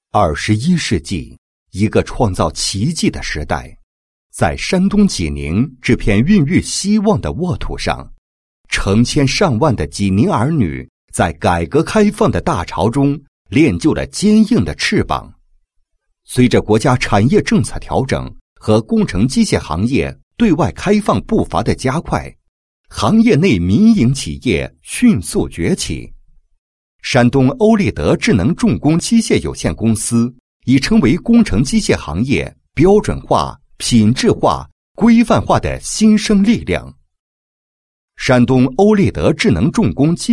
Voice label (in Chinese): 男 企业, 配音; 蓝图影视